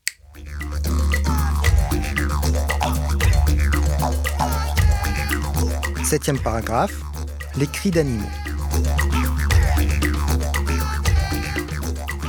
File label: Animal Sounds French; Section Seven